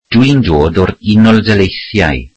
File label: welsh Im from the US